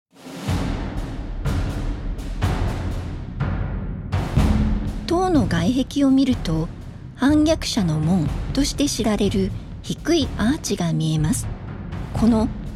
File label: Japanese; Crown Jewels